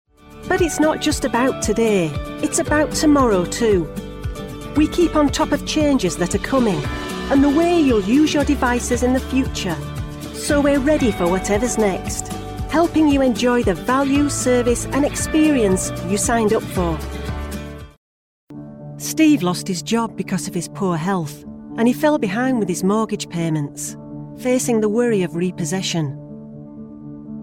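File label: British English, female, CORPORATE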